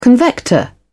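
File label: "convector", British English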